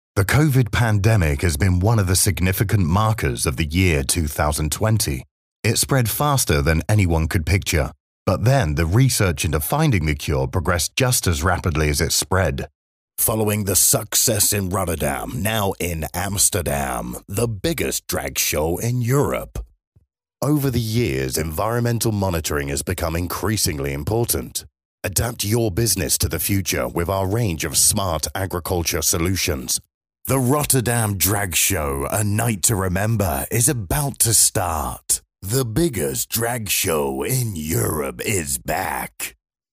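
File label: English male; lektoring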